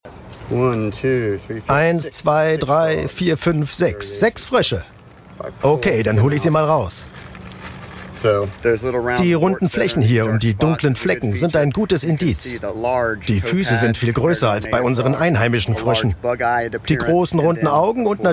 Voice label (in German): Voice over Reportage; Geo